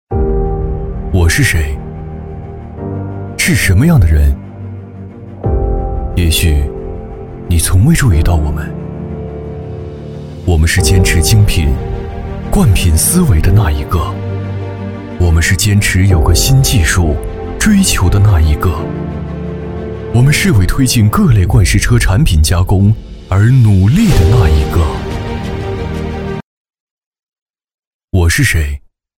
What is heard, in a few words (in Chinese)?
男 走心; 男C16-走心 - 我是谁？; 男C16-年轻质感; 年轻舒缓